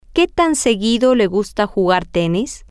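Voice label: how often do you like to play tennis, Spanish, female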